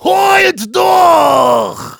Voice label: Heavy special completed, de

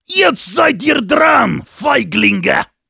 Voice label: Heavy special completed, de

